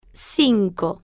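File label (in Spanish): "cinco", Spanish, female, slow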